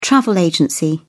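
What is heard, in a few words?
"travel agency", British English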